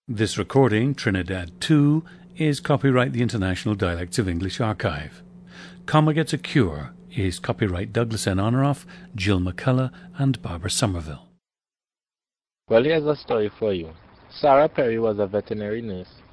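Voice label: trinidad, dialects of English; a 19-year-old man from Kelly Village, Caroni; International Dialects of English Archive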